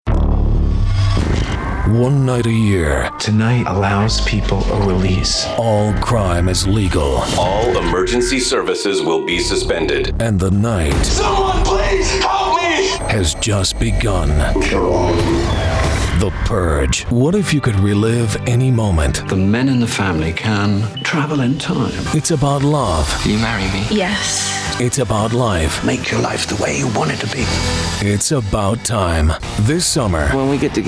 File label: trailers men